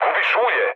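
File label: Serbian damaged oil mood low, speech; War Thunder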